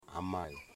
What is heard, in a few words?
"mother", Wamut